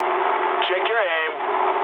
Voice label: eng player friendly fire mood low, speech eng